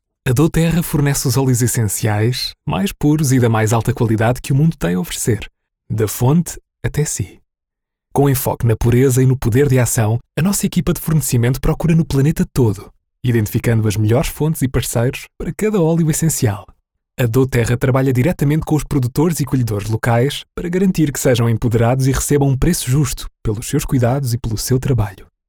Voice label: portuguese corporate videos